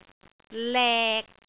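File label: "lek6", Cantonese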